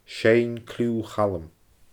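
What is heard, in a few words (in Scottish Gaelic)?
"seinn cliuu Chaluim", Scottish Gaelic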